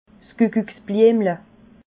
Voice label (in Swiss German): "kuckucksbliemle", alsace